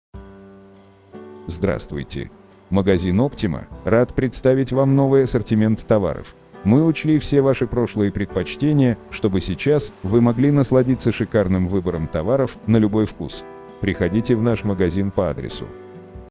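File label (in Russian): автоответчик магазин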